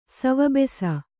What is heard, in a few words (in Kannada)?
"ಸವಬೆಸ", Kannada